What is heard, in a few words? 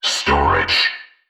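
"storage", synthesized voice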